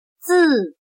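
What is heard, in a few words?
"字", Chinese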